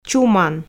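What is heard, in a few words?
"чуман", Komi, female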